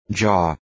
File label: "jaw", English